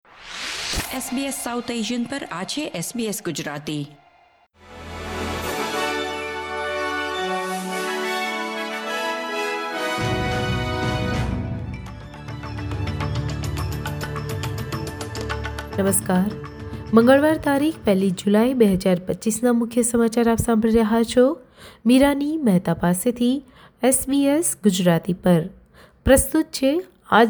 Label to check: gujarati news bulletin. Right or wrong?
Right